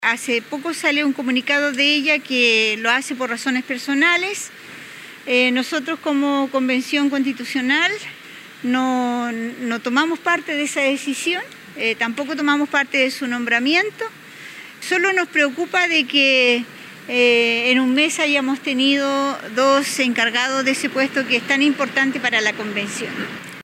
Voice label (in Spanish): cuña